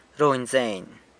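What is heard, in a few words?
"runy zeiny", San Lucas Quiavini Zapotec; works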